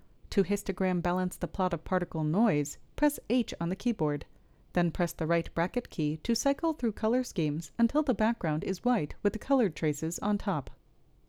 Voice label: Voiceover